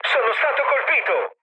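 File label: Italian aircraft damaged mood med, speech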